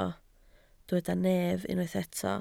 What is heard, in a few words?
"nef", Welsh